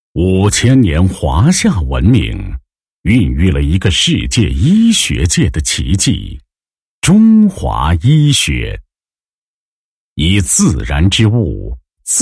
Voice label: 男 品牌宣传; 净夫人宣传介绍

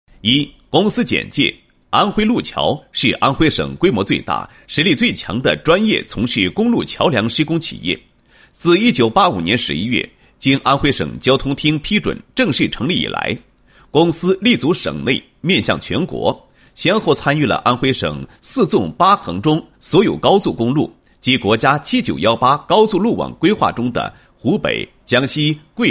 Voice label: 男 工程解说; 申报省标化工地工程材料

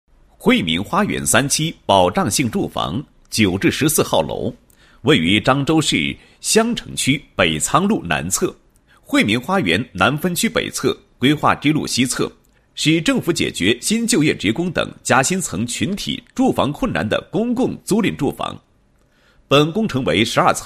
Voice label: 男 工程解说; 惠民花园三期保障性住房工程解说

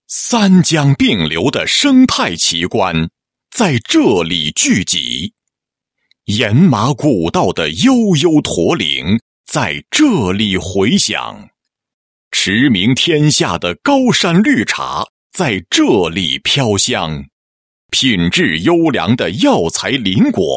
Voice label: 男 解说; 资源富集的云龙等你来

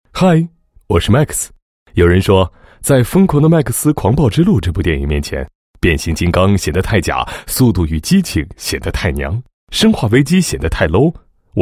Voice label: 广告 电影宣传, 国语男声配音, 大气; 麦克斯电影宣传

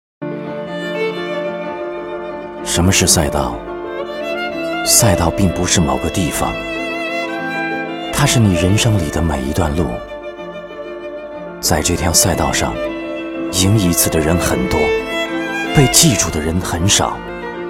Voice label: 男声配音, 大师级录音; 国语男声配音; Porsche; 每一段历程都是传奇

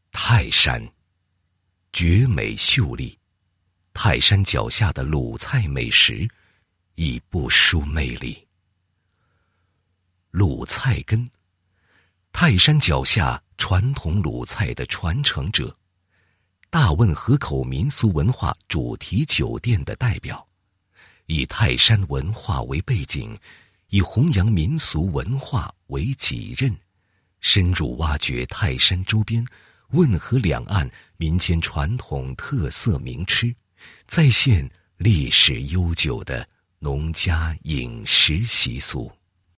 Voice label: 男 宣传视频 宣传介绍; 泰安鲁菜根

